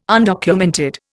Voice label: "undocumented", Indian